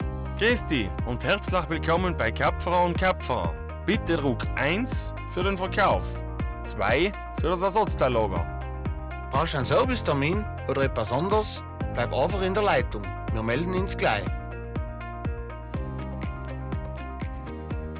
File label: IVR; Auto Kapferer; Telefonansagen